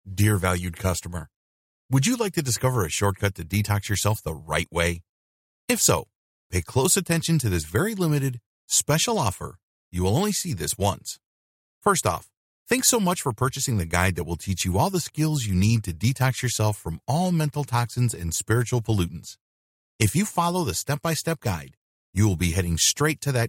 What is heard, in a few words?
Upsell Sales Video Voice Over; facebook ads video upgrade